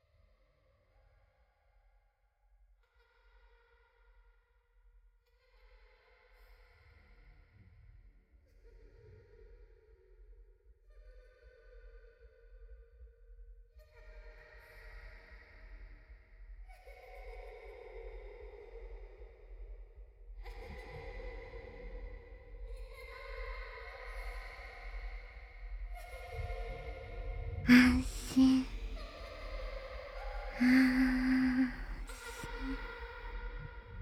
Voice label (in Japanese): 気持ちいいを、延々と奏でて（エッチシーン）, ASMR